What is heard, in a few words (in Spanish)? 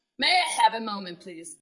Voice Acting es